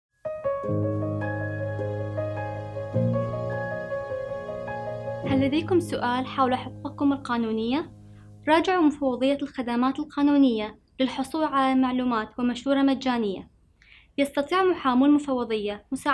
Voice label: Arabic